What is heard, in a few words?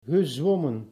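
"gezwommen", Dutch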